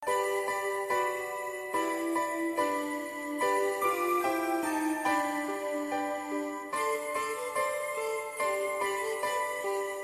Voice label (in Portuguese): natal romantica fem, telemensagem de natal voz feminina